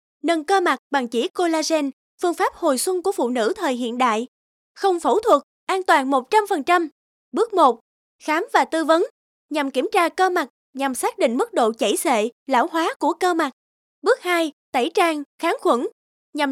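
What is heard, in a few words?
Vietnamese South, female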